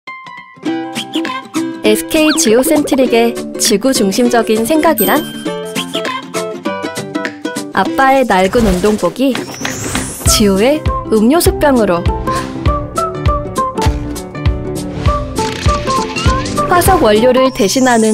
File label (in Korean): 밝은 20대; SK지오센트릭